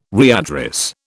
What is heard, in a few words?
"readdress", British